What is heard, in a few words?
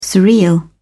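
"surreal", British English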